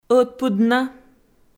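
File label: "ӧтпыдна" Komi, female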